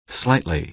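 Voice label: "slightly", American English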